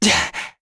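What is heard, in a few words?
Vox Landing, Korean; Neraxis; kingsraid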